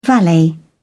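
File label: "valet", British English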